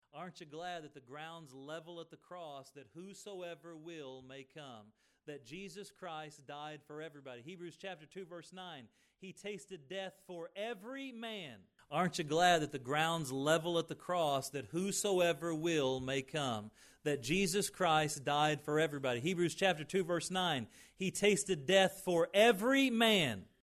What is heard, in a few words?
Voice Effects Comparison Before and After